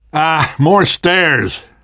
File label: world hospital, namvet, survivor voice